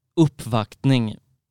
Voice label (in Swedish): "uppvaktning", Swedish; happy birthday in swedish